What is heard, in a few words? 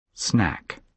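"snack", British English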